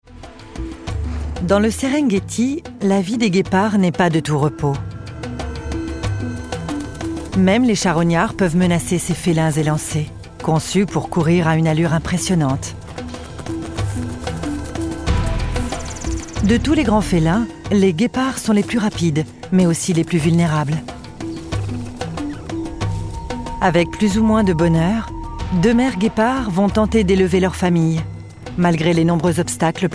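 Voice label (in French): maman guepard, voix off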